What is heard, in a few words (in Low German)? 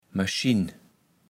"Maeschinn", kaevels platt